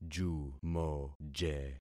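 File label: "jumoje", concatenated syllables; Affixing experiment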